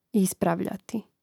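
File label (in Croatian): "ispravljati", hrvatski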